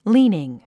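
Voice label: "leaning", English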